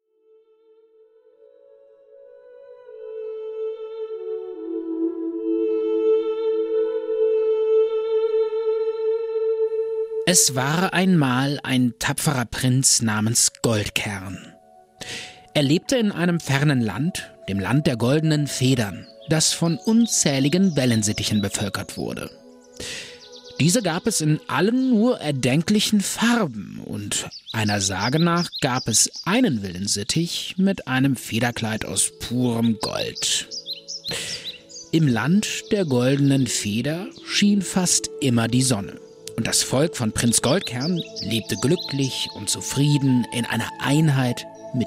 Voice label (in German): Stimmprobe Sprecher; Märchen; Goldene Feder; Stimmproben Sprecherstimme